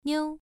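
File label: "niu", tone 1, pronunciation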